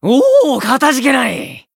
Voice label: Voice healed jp